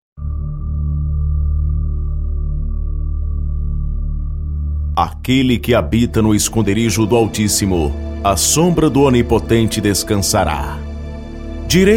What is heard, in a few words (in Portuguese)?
locutor salmo 91 na voz